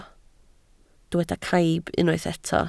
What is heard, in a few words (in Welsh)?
"caib", Welsh